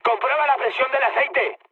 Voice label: damaged oil, mood high, speech; War Thunder